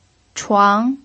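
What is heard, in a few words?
"chuang" second tone, Mandarin Chinese pinyin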